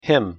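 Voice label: pronunciation "him", English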